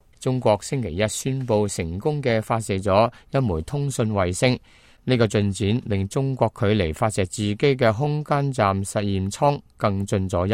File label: cantonese, news